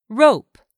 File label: "rope", English; 3000 words